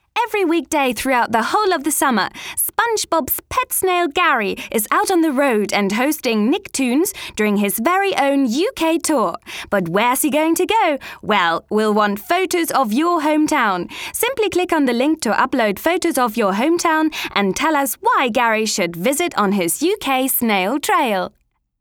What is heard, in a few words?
kids; Englisch / Deutsch f.